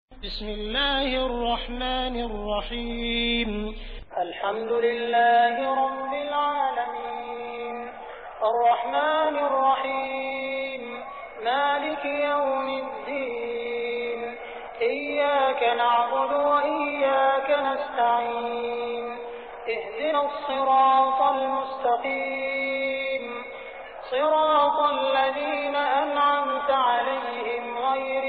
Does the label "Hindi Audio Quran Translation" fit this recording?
Yes